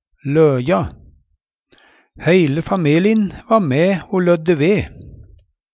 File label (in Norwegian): "løja", Numedalsmål; lø/løja